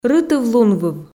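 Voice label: "рытыв-лунвыв" Komi, female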